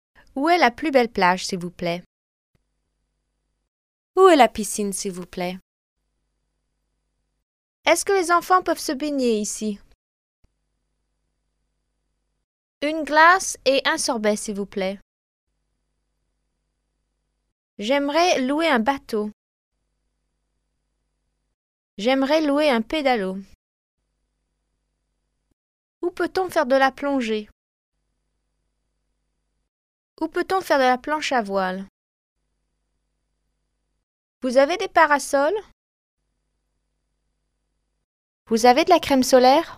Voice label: French